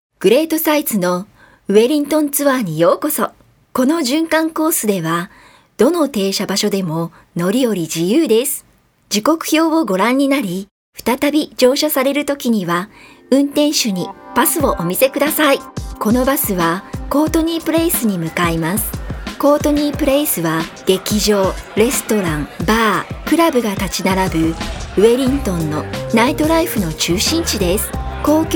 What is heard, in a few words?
Japanese; Te Papa Tongarewa